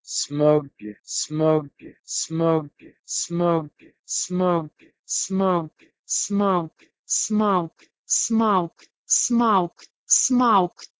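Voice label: Proto-Indo-European "smeughe" to Lithuanian "smaug"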